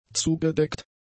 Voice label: "zugedeckt", German; adjectives